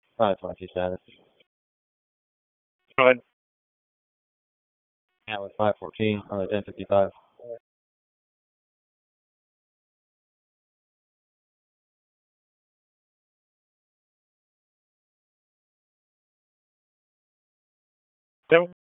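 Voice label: Raleigh Police Southeast District Dispatch; wake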